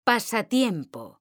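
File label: "pasatiempo", Spanish, female; mekanta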